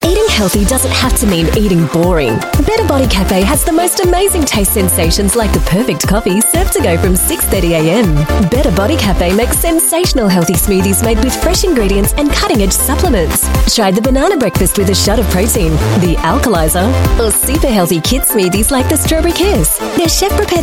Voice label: AU MidAtlantic English Fitness, Australian Female; Airlie

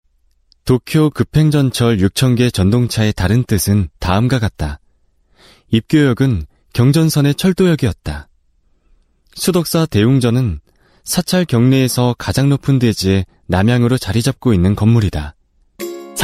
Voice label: Korean Male

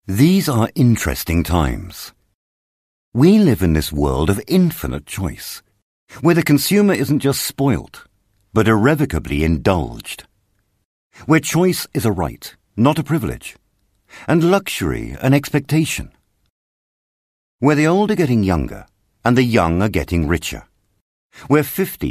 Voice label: UK English Manifesto Presentation, Male

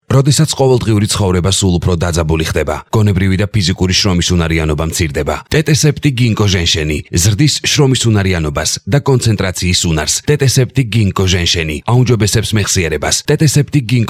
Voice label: Male Georgian

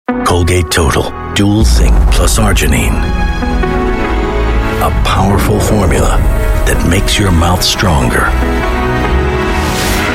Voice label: US English; Colgate Made For Greatness

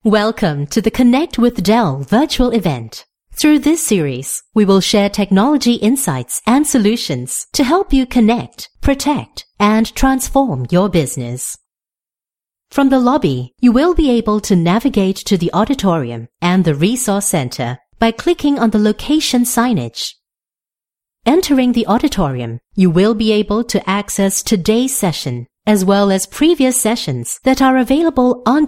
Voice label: Asian English Corporate English; Dell

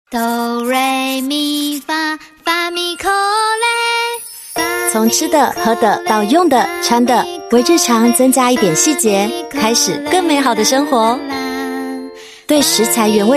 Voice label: Mandarin Taiwan Voice Sample

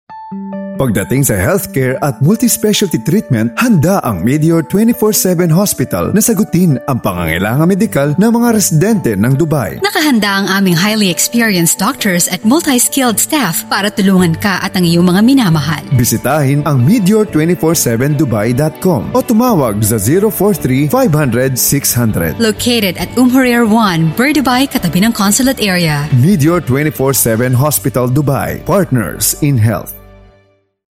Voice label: Tagalog Male Medical Script

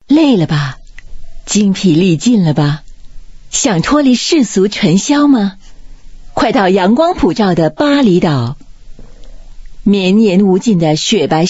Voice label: Mandarin, China Female

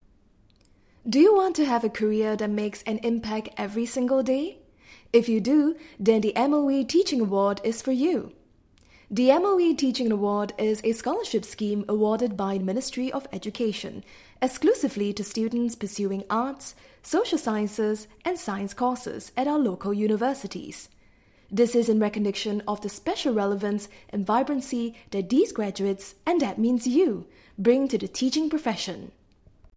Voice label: English Singaporean Female; MOE Award

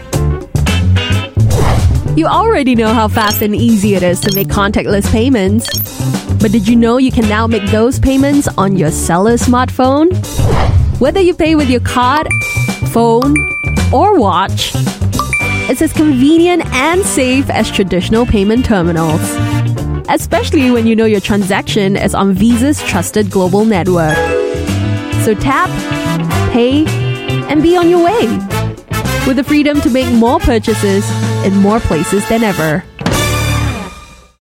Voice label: Asian English Casual; Visa

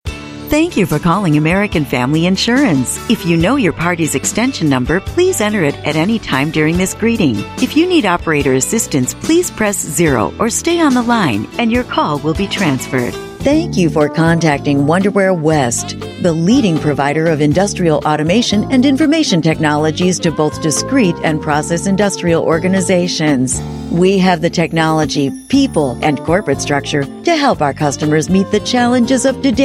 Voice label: US English IVR Messages

